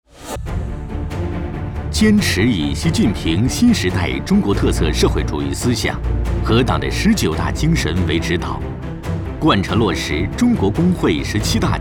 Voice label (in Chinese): 【专题】, 国语; 蒙牛集团